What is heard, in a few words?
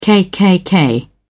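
"kkk", British English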